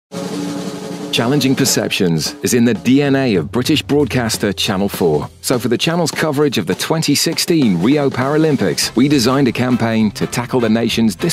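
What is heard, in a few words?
British corporate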